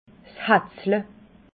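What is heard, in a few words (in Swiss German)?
"hatzla", Alsatian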